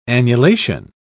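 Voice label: "annulation", British English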